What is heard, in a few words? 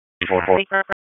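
Sheriff's Office Dispatch 1, p25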